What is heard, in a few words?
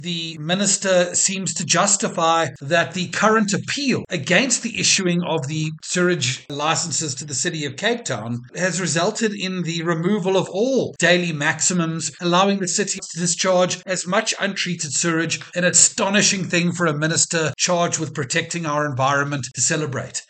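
English; Sewage Crisis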